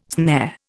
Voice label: "snare", Indian